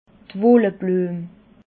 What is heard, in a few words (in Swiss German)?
"wullebluem", alsace